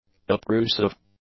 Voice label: "obtrusive", english; adjectives